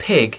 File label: "pig", English; domaci zvirata